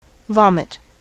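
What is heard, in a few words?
"vomit", US English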